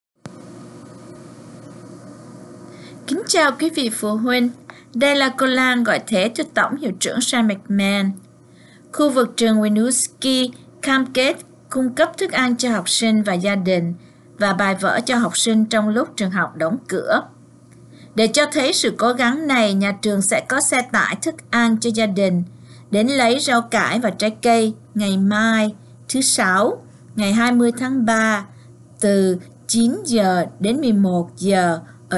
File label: vietnamese